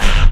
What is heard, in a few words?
cyber pain; player; Dystopia